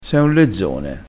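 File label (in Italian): "lezzone", crespinese dialettale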